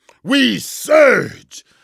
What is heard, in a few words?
"surge", vortigaunt; Half Life 2; Episode 2